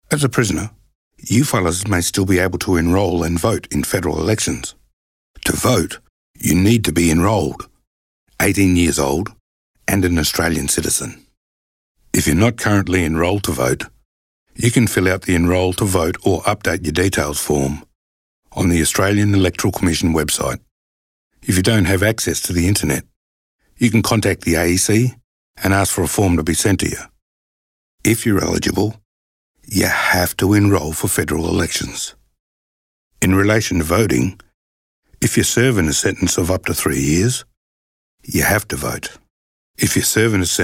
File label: male; prisoners; Enrolling to vote